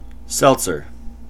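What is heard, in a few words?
"seltzer", American English; pronunciations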